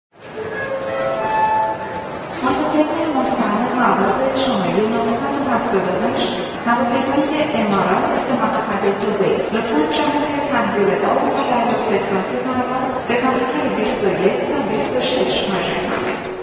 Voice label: voice announcement flight persian

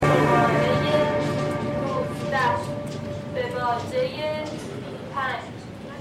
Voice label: voice narrator

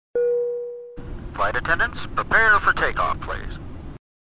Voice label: Pilot conversation; مکالمه خلبان با مسافران

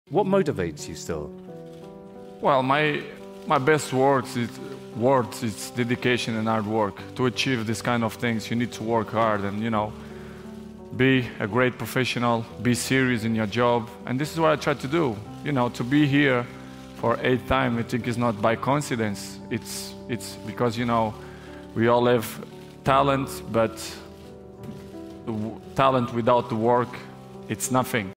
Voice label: sports motivational; جملات انگیزشی ورزشی خارجی

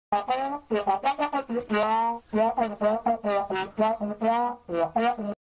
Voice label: mumbling

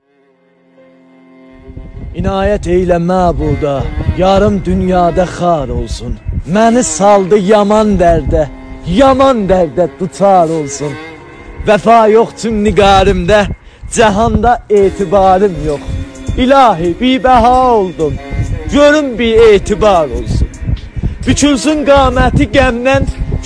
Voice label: دکلمه ترکی

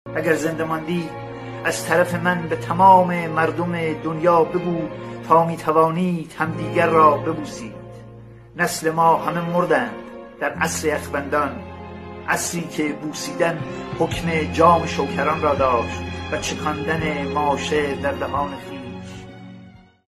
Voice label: narration

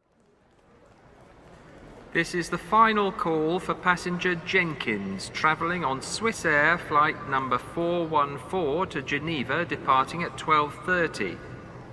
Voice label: Airport Announcement English

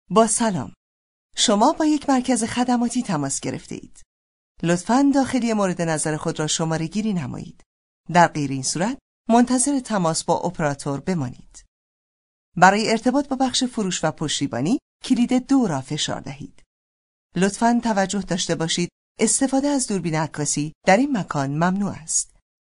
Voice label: farsi sound

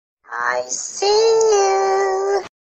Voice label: Granny

